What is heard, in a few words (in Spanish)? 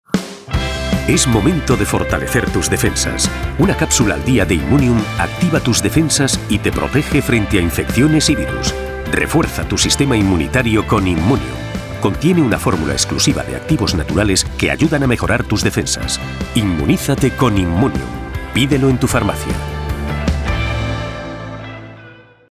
Masculino; Sonoarte